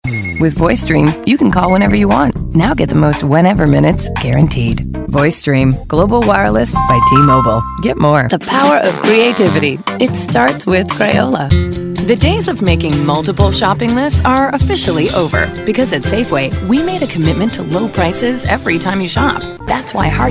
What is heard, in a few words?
Commercial